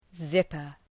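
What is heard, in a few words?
"zipper", English; {‘zıpər}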